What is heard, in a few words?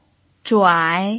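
"zhuai3", Mandarin Chinese pinyin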